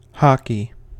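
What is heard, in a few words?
"hockey", US English; pronunciations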